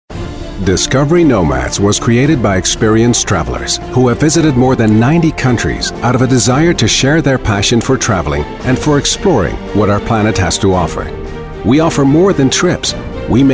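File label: Corporate, voice-over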